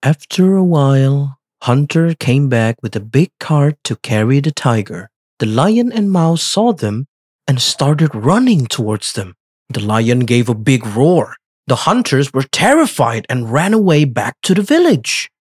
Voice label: Karakter English